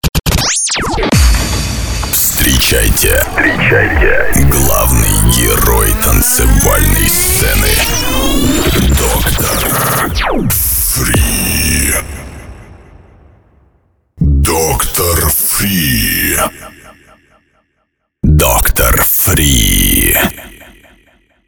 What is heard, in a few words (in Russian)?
DJ Одноголосный